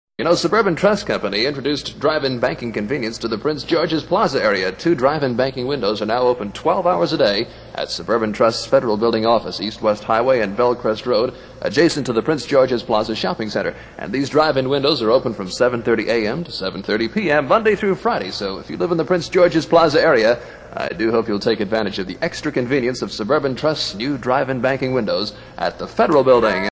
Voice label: spot; suburban trust